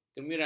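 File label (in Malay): "kemirau", Malay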